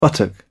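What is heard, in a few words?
"buttock", English, British English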